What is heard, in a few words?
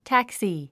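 "taxi", English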